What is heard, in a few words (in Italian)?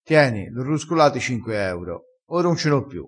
"ruscola", crespinese